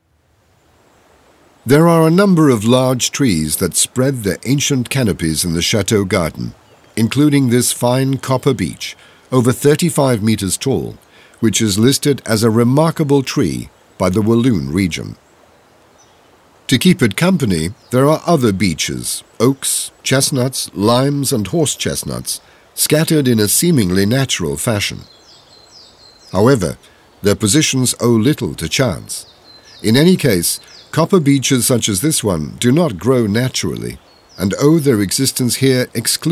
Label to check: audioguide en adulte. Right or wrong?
Right